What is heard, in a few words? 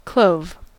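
"clove", US English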